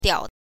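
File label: "diao", Mandarin Chinese, neutral tone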